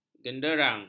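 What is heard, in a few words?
"genderang", Malay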